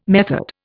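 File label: "method", English, Indian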